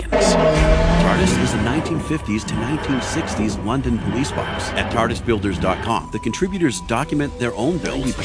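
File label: Narration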